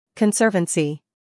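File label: "humor", British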